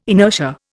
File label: "inertia", Indian